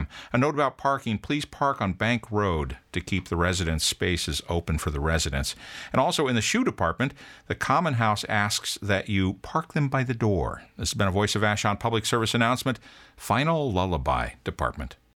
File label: PSA Threshold Choir